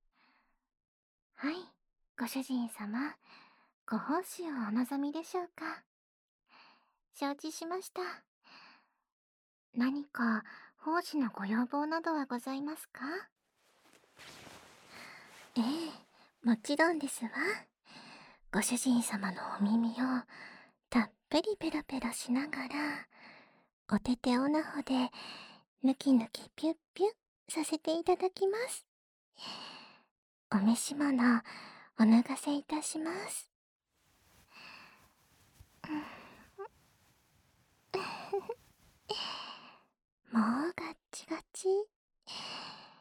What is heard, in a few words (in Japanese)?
オナホ当番メイドの耳舐め音声, ASMR